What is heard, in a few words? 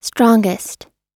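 "strongest", US English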